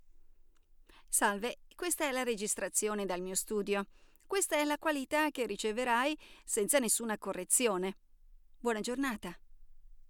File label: Unedited sample from my studio